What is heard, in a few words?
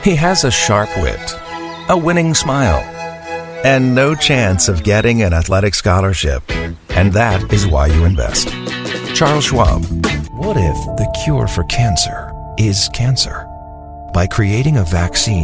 Commercial